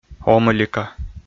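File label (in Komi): "омӧлика", Komi, male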